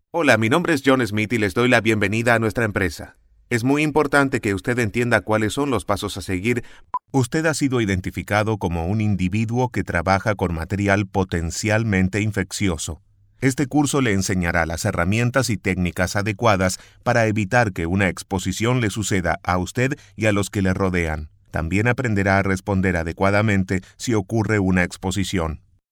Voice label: ar informative